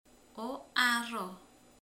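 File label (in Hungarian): "tojás", Hungarian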